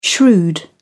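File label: "shrewd", British English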